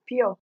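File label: "pure", Nigerian Pidgin, female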